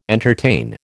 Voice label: "entertain", American